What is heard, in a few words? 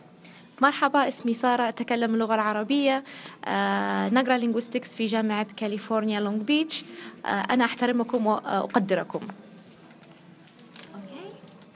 Arabic